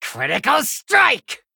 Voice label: Male Gnome Wicked Stab

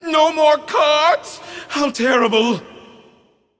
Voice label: Male Void Elf Emote No Cards

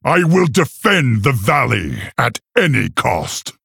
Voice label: Male Orc

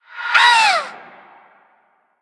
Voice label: Female Ice Elemental Death

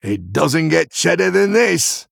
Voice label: Male Human Play

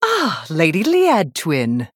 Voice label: Female Blood Elf Interaction

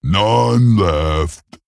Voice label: Male Ogre Attack

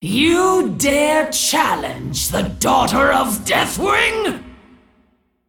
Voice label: Female Dragon InGame Introduction; Onyxia

